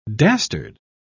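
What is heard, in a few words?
"dastard", English, British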